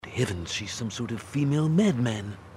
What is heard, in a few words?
female madman; Simpsons